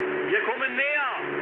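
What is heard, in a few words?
German near waypoint mood high, speech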